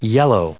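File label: "yellow", English, us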